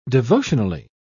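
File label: "devotionally", British English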